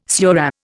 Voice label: "surah", Indian